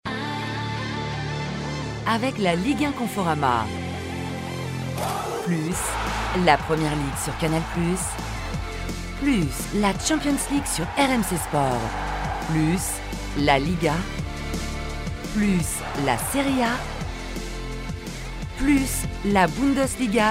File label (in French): SPOT PUB FOOT; myCANAL